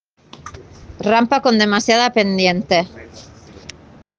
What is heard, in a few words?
Voice message